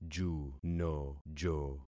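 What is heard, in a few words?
"junojo", concatenated syllables; Affixing experiment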